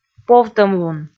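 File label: "повтӧмлун", Komi, female; kpv audio dict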